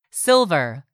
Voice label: "SILVER", english; 3000 words